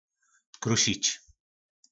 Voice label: "Krušič", Slovník nářečí; Drobit; Někruš tela při jedzyňu.

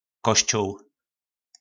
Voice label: "Koščół", nářečí Po našimu; Kostel

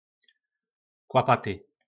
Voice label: "Kłapaty", Slovník nářečí; Malátný

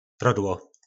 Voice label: "Prodło", nářečí Po našimu; Prádlo

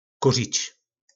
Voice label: "Kuřič", Slovník nářečí; 1.Kouřit; 2.Sněžit

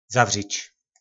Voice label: "Zavřič", po našimu; Zavřít

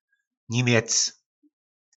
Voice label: "Niměc", nářečí Po našimu; Němec

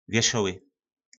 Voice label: "Věšoły", nářečí Po našimu; Veselý

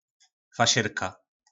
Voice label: "Fašyrka", nářečí Po našimu; Karbanátek

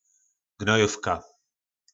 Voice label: "gnojovka", nářečí Po našimu; Hnojůvka

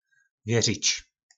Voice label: "Věřič", nářečí Po našimu; Věřit

